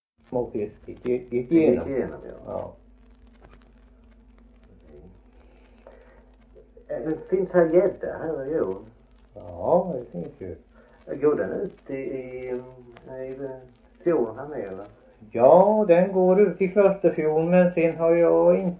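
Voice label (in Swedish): Värö Halland, dialekter svenska sagor; Folkminnen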